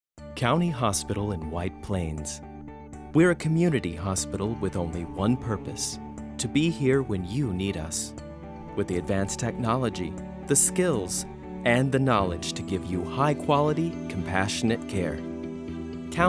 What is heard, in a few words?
VO HEALTHCARE; Demo Reel